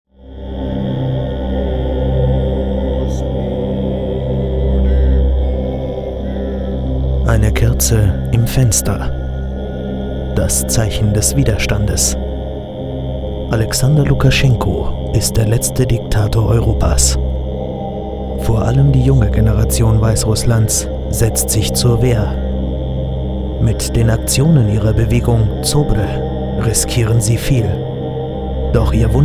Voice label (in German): Doku Russland